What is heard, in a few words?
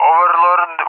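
"Overlord", English, RUSSIA; Allied Flight; CallSign; DCS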